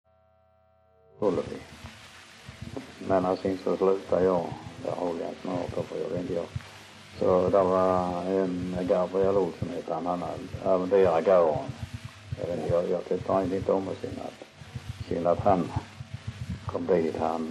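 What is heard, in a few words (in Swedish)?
Munka-Ljungby Skåne, dialekter svenska sagor; Folkminnen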